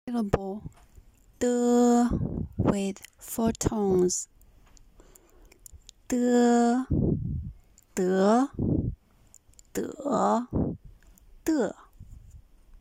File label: de with 4 tones, Mandarin